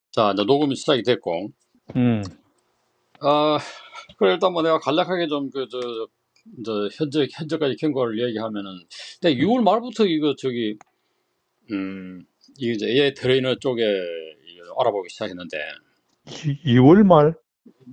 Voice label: korean speech dataset